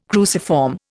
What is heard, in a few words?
"cruciform", Indian